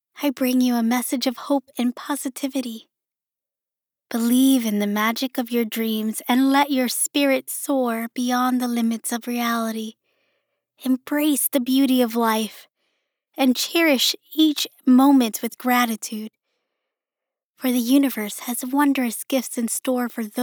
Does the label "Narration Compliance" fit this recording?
No